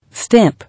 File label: "Stamp", English